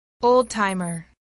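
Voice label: "old-timer", English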